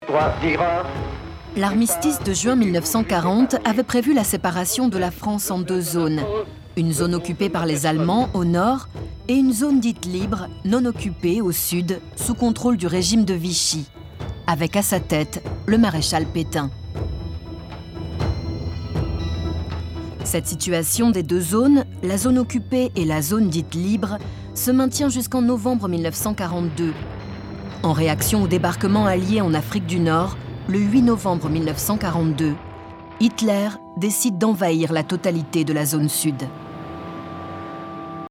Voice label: french documentaries; professional French voice actress and singer

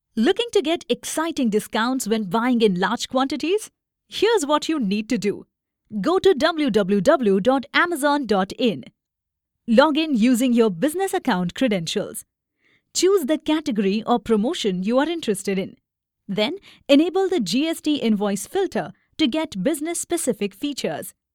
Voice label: english indian promos

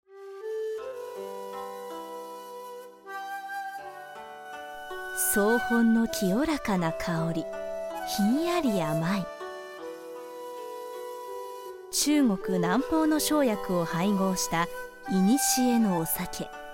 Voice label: japanese commercial

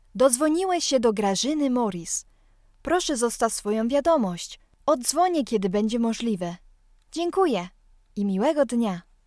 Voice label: polish ivr

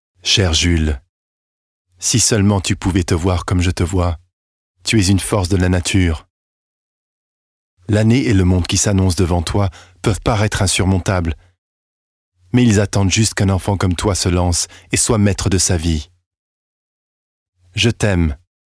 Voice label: French promos

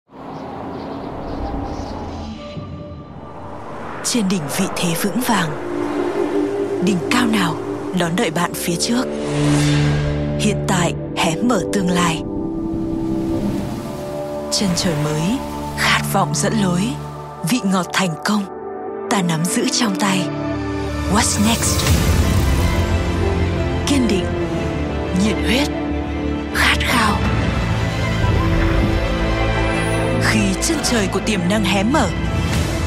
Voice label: Vietnamese commercial; multi-lingual voice actor

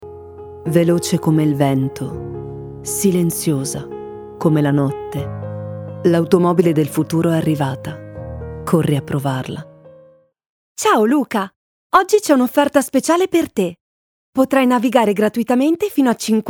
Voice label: italian commercial

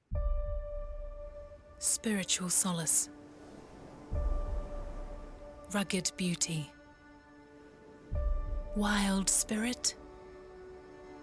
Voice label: english new zealand corporate videos

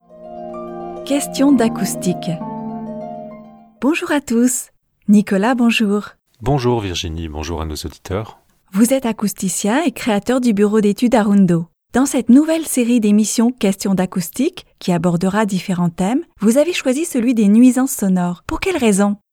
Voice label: french podcasts

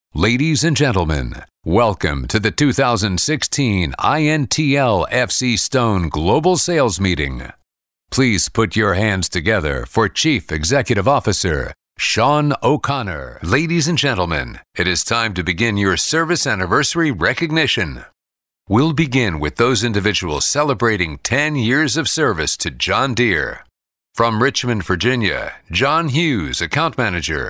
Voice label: english american announcements; North American English professional, working voice actor

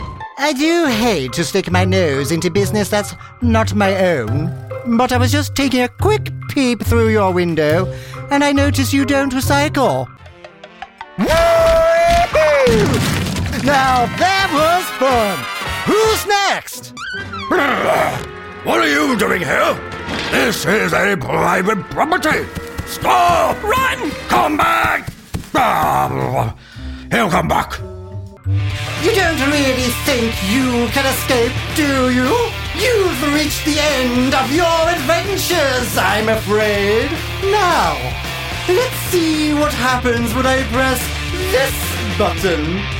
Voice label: english british animation

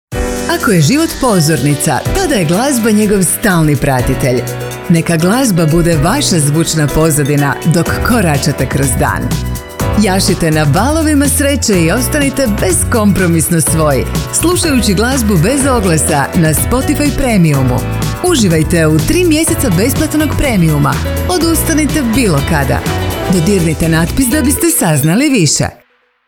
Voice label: croatian commercial; professional Croatian voice actor

